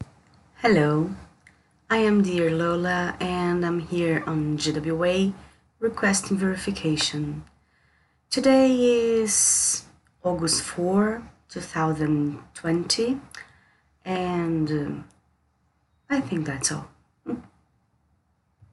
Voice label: F Voice verification